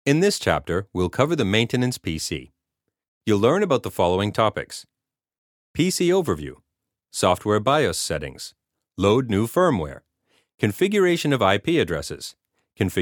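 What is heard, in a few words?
American elearning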